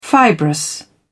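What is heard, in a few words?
"fibrous", English, British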